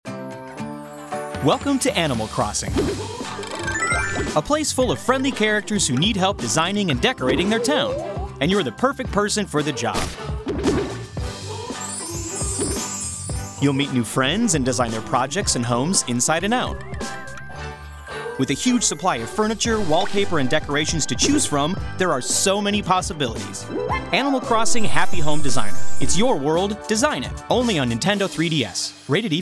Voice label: TV Commercial; Nintendo 3DS; Animal Crossing: Happy Home Designer

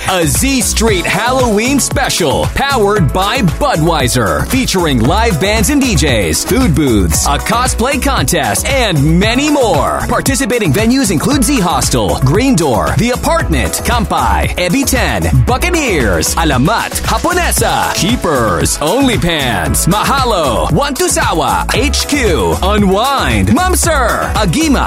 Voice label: ENERGETIC Radio Commercial for clubs and parties

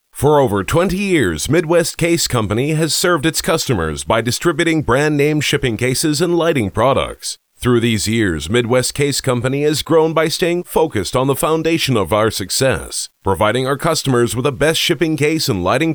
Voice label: American; Voiceover Demos

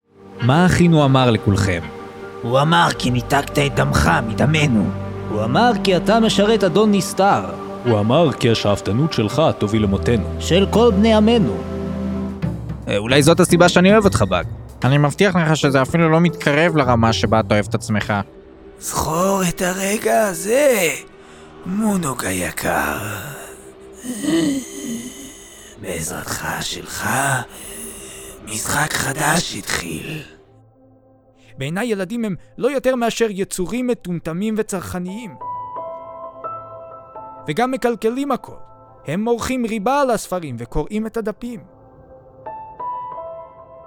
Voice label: Character Voices HEBREW

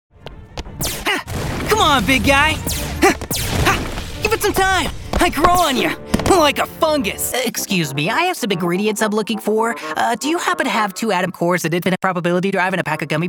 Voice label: Character

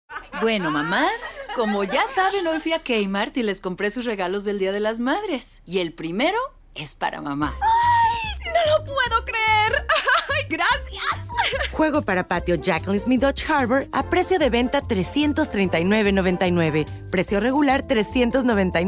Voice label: Voice Over (Spanish); K-mart

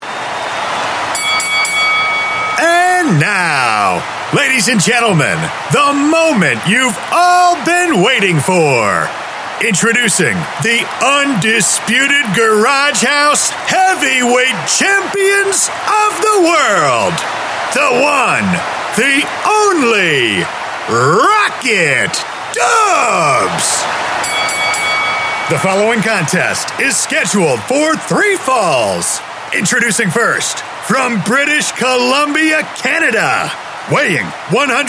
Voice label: Custom Ring Announcer

